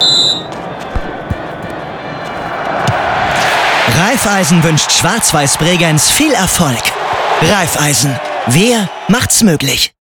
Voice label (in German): WERBUNG; Raiffaisen